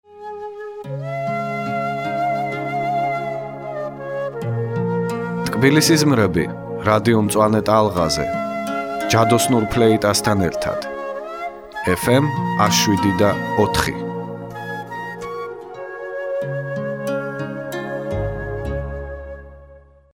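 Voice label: male, narration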